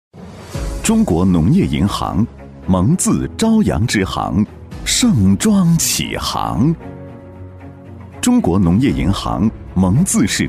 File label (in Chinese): 男, 银行宣传片, 大气浑厚; 男169-高端质感 大气浑厚; 中国农业银行蒙自朝阳支行